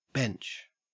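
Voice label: "bench", Australian English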